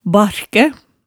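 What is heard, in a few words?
"barkæ", Hemsedal dialect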